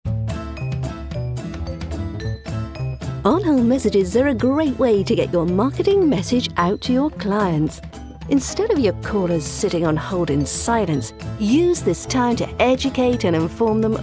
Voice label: On Hold; 3 Key Areas for On Hold